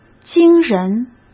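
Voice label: "惊人", Mandarin Chinese; hsk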